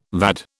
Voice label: "VAD", British